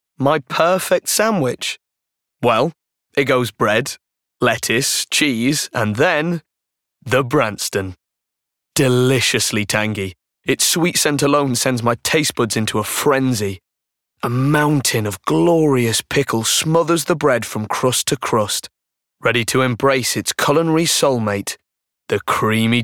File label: Commercials